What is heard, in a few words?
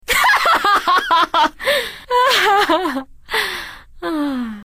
Blood Elf Female